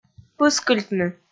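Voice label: "пыскыльтны", Komi, female; kpv audio dict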